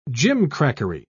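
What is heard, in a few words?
"gimcrackery", British English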